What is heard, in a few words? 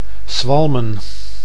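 "Swalmen", Dutch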